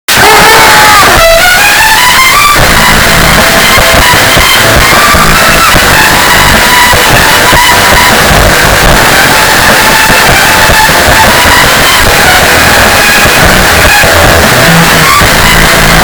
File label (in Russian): Голосовое сообщение; голосовые